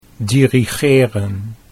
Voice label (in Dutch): "dirigeren", Dutch